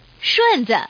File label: "shunzi", woman; mahjong ningxia